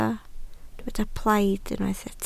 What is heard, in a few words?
"plaid", Welsh